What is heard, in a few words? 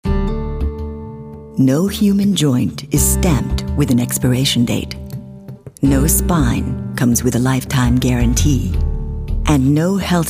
older